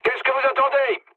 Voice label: attack position mood low, speech fr; War Thunder